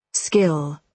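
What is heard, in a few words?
"skill", British English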